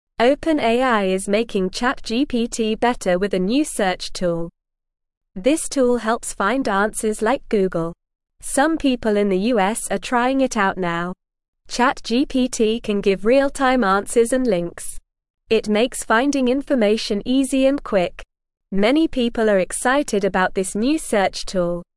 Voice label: English Beginner NORMAL Reading; ChatGPT Gets New Tool for Finding Answers Fast